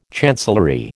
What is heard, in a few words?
"chancellery", American